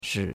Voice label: "shi3", chinois; Cours-de-Chinois

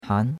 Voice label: "han2", chinois; Cours-de-Chinois

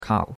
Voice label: "kao3", chinois; Cours-de-Chinois

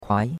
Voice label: "kuai2", chinois; Cours-de-Chinois

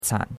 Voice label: "can3", chinois; Cours-de-Chinois

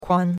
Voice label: "kuan1", chinois; Cours-de-Chinois

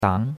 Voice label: "dang2", chinois; Cours-de-Chinois

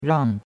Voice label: "rang4", chinois; Cours-de-Chinois

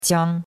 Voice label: "qiang1", chinois; Cours-de-Chinois

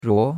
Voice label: "ruo2", chinois; Cours de Chinois

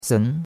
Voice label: "sen2", chinois; Cours de Chinois

